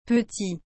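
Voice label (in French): "petit", French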